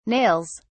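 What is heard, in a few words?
"nails", British English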